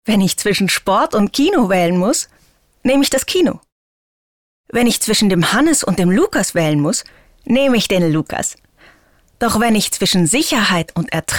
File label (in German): Frau